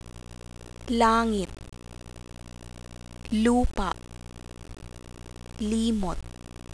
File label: l initial, Tagalog, Consonants; Phonology